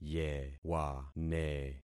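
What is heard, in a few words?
"yewane", concatenated syllables; Affixing experiment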